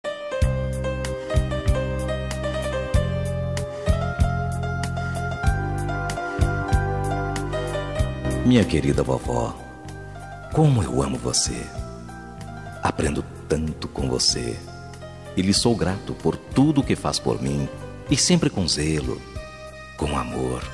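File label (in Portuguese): dia dos avós masculina; dia dos avos para avo voz masculino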